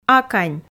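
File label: "акань", Komi, female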